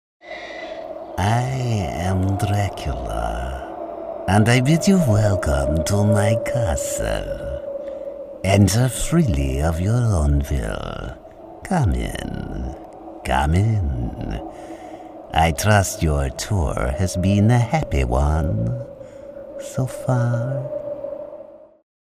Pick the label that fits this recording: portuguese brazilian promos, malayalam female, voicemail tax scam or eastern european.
eastern european